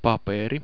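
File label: "paperi", Finnish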